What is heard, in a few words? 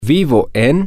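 Spanish, male; i Live In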